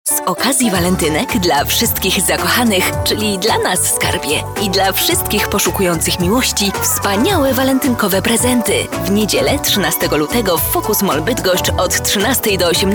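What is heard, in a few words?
Polish commercial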